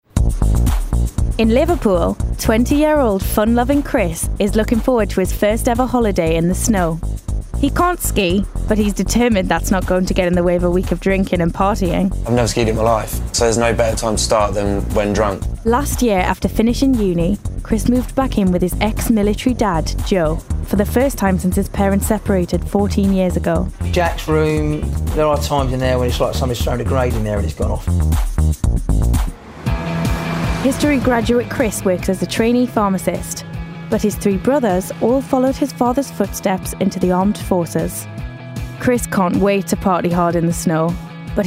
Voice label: DOCUMENTARY; Snow Sex Suspicious Parents